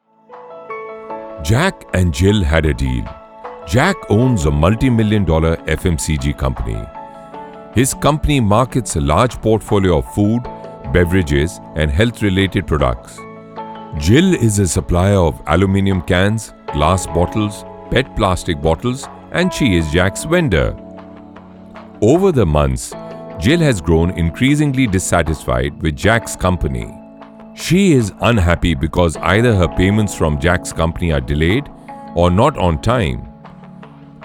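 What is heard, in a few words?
Explainer English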